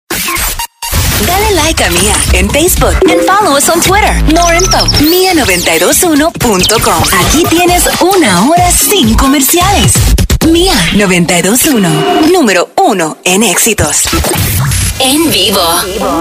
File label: Spanish IMAGING